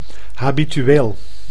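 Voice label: "habitueel", Dutch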